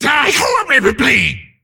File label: Male Demon Attack